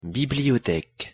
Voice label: "bibliotheque" pronunciation fr